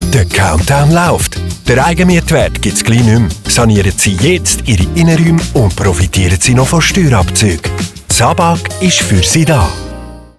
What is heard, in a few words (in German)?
Radiospot Neutral; SRW; SABAG; Sanieren